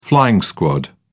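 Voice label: "flying squad", British English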